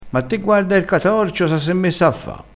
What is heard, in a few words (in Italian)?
"catorcio", crespinese